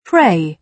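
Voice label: "pray", British English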